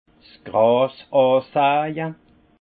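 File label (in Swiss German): "gras", alsace Haut-Rhin; vin; enherbage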